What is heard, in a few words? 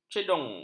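"cedung", Malay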